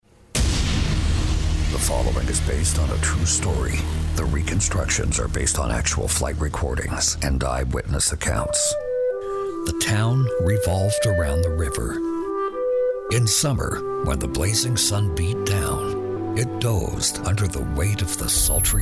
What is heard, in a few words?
Narration Male, Narration, Corporate